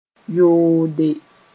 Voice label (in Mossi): "yoode", moore; untie